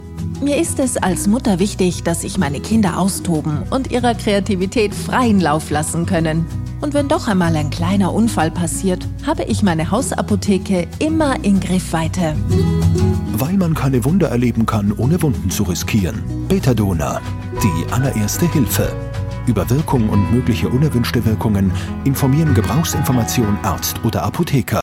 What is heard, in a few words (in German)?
online Spot; betadona; Linz; Muttersprache Deutsch